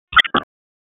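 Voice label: Dispatch, p25; WSO DISP 1; wake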